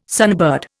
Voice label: "sunbird", Indian